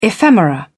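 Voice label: "ephemera", English, British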